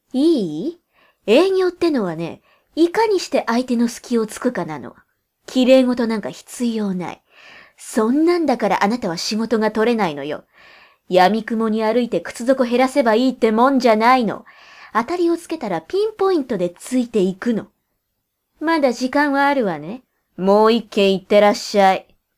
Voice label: angry lady

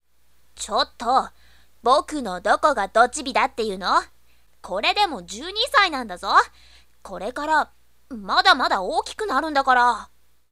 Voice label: boy

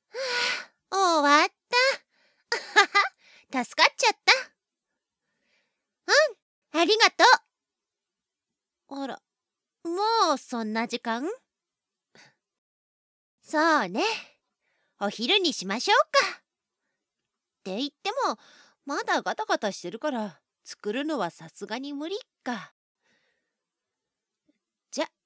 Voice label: girl

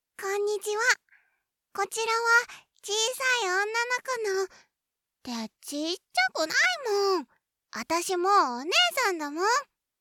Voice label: girl

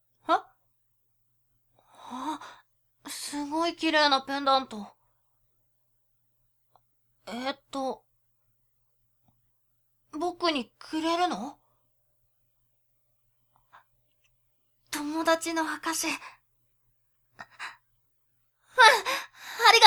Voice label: boy

